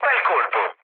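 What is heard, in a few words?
Italian player killed enemy mood low, speech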